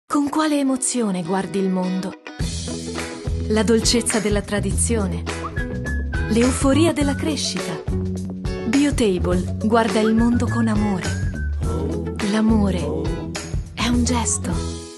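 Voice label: advertising Italian, ITALIAN FEMALE; Biotable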